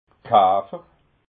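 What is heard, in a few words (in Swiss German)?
"kafer", Alsatian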